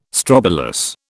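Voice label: "strobilus", British English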